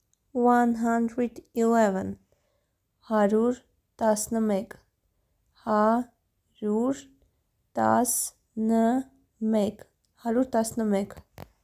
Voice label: 111, armenian; haryur tasnymek